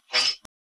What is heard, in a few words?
Voice Acting es